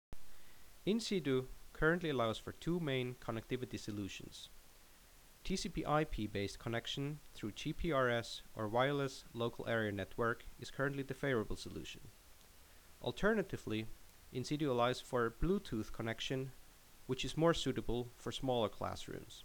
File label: Narration